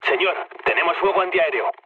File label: damaged aa, mood low, speech; War Thunder